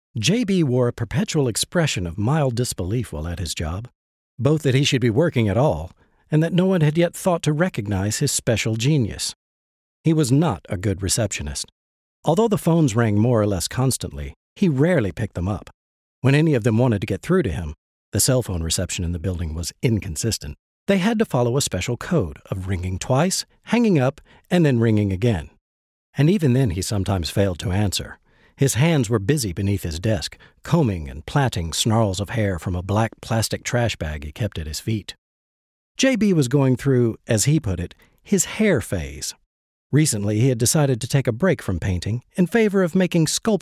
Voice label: audiobook